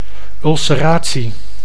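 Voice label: "ulceratie", Dutch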